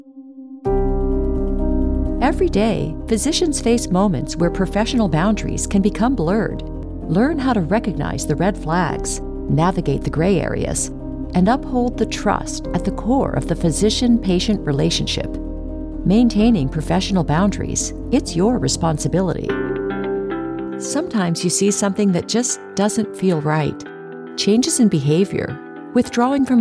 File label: Narration